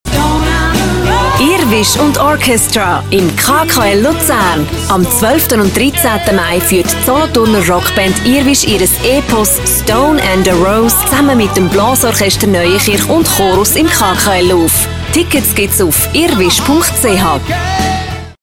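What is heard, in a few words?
Radio advert; Radio Pilatus; Werbespot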